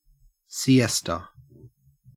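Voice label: "siesta", Australian English; pronunciations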